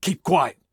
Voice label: silence; Escape from Tarkov